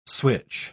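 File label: "switch", English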